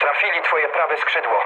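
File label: right wing, mood low, Polish speech; War Thunder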